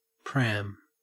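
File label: "pram", Australian English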